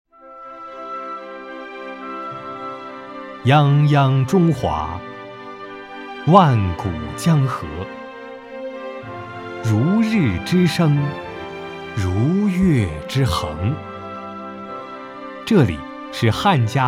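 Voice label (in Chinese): 中文宣传片大米自然美食男声; 禾沁香大米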